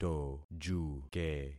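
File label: "tojuke", concatenated syllables; Affixing experiment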